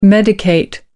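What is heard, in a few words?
"medicate", English, British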